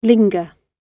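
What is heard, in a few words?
"linger", British English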